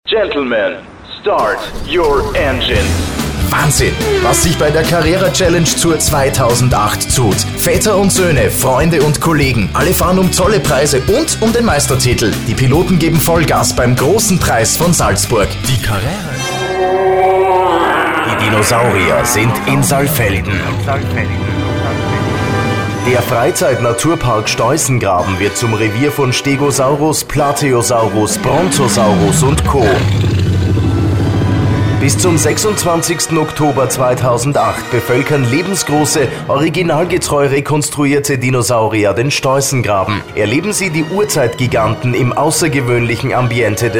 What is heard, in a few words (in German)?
Sprecher Showreel